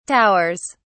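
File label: "towers", British English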